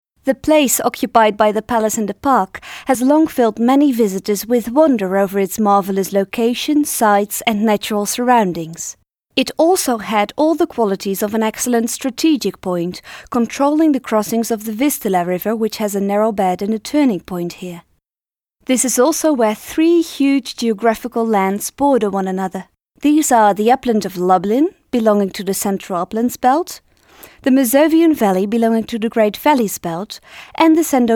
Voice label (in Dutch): Engels